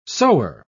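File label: "sower", English, British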